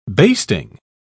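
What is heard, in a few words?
"basting", British English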